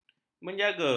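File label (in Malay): "menjaga", Malay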